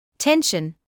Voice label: "tension", medical English